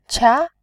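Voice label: "qia", Mandarin, tone 2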